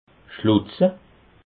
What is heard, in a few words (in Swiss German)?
"schlutze", alsace